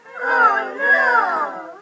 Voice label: "oh no" kids; ooh ahh